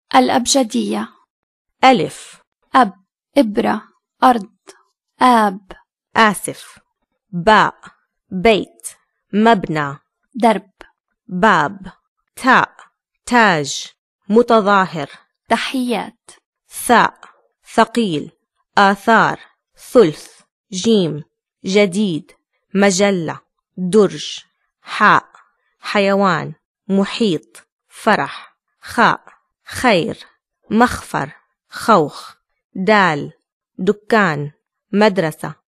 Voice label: arabic letters